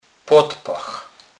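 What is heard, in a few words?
"podpach", Polish, Kurpie dialect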